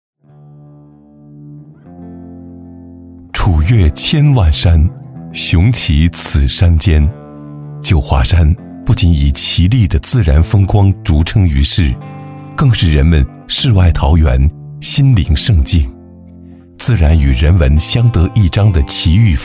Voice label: 男 风光宣传 舒缓; 九华山; 大气舒缓; 舒缓抒情

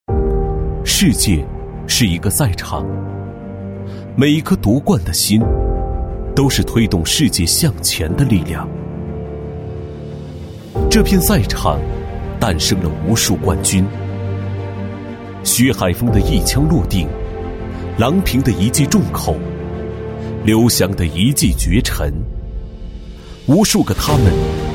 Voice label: 男 汽车 磁性品质, 年轻气质 轻松活泼; 奇瑞汽车; 男D2-奇瑞汽车-磁性品质

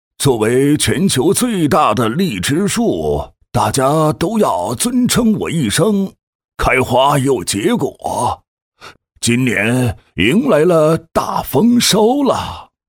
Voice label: 男 角色模仿 60岁爷爷; 大气稳重; 60岁爷爷

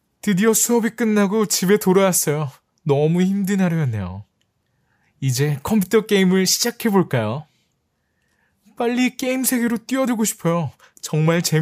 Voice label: 韩语男声 角色说话

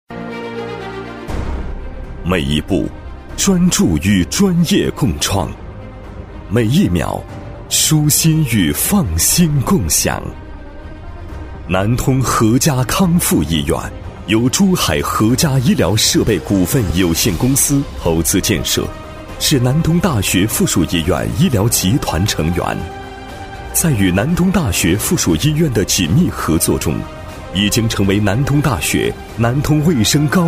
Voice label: 男 医院 大气沉稳; 厚重磁性 大气浑厚; 和佳康复医院

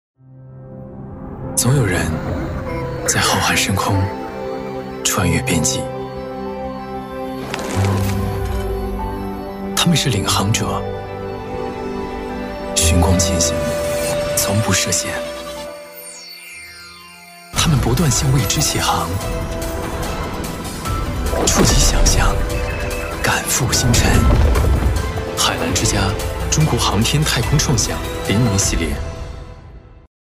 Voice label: 男 时尚科技, 时尚质感 科技时尚; 海澜之家太空创新联名系列

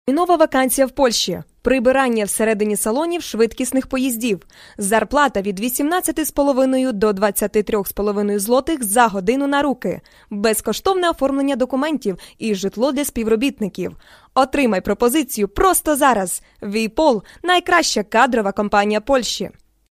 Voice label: 俄语女声

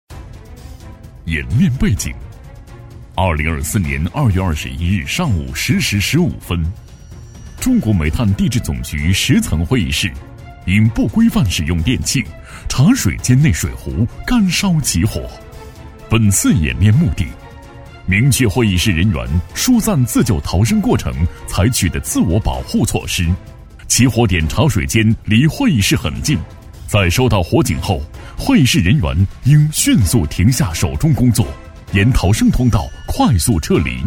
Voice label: 男 消防演练 沉稳大气

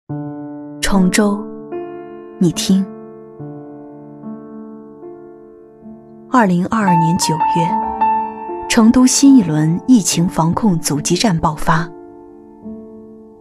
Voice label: 女 疫情专题 走心低沉; 《崇州，你听》

